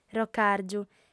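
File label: "procàrgiu", Sardinian, female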